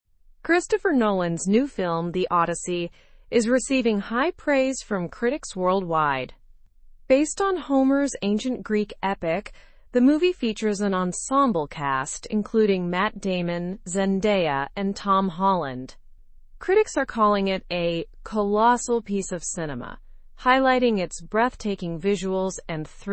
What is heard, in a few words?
english through news upper intermediate; the odyssey by christopher nolan wows critics